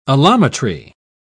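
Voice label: "allometry", British English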